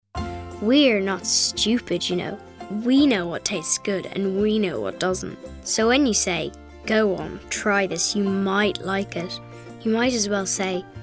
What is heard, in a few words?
Commercial